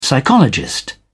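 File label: "psychologist", British English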